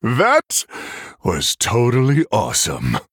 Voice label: Male Human Well Played

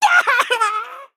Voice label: Male Imp Death

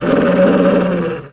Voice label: Gnoll Pissed

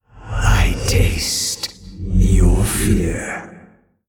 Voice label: Male Old God Creature

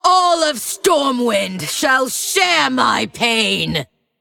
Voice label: Female Forsaken Play

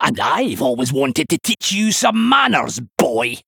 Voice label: Male Dwarf

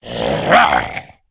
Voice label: Male Ghoul Attack

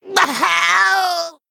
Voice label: Male Kobold Death

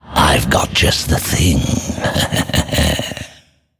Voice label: Male Forsaken

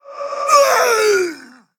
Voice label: Male Ethereal Death

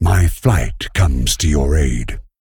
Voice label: Male Dragon Emote; Flight Of The Bronze

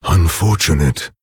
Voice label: Orc Emote Oops; Nerzhul

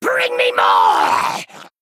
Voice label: Male Bat Attack

